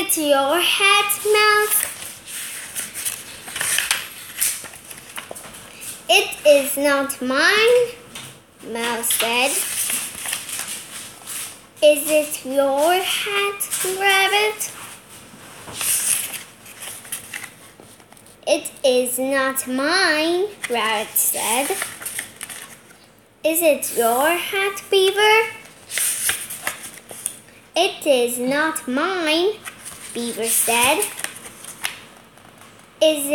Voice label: Reading Whose Hat Is It?